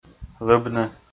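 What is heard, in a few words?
"лыбны", Komi, male; kpv audio dict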